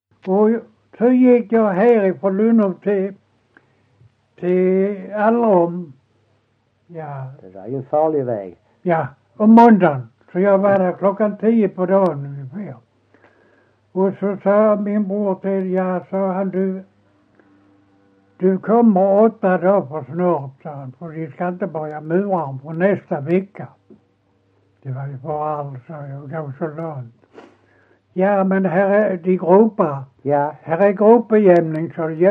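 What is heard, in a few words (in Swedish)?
Ekeby Skåne, dialekter; Folkminnen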